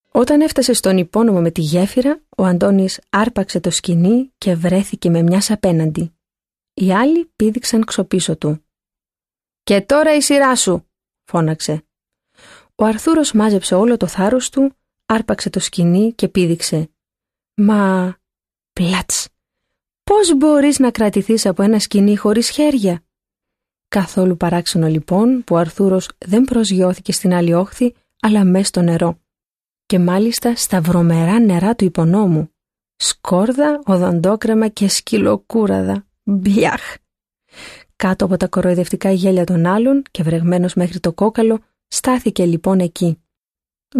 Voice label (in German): griechisch; hueber